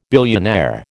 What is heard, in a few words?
"billionaire", American